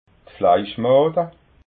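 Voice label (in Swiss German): "fleischmada", Alsatian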